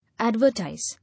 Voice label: "Advertise", English